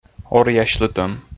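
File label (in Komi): "оръясьлытӧм", Komi, male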